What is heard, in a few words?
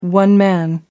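"one-man", English, British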